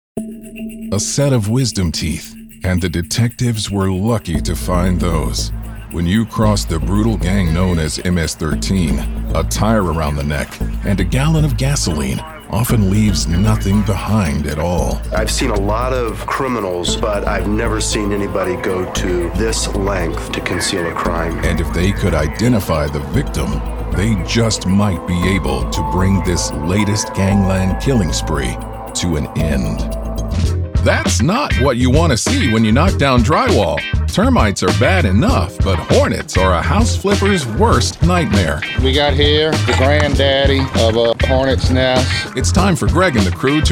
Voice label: TV NARRATION